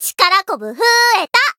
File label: Voice growth jp; may